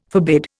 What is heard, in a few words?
"forbid", Indian